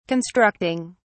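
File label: "constructing", English, British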